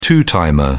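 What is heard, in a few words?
"two-timer", English, British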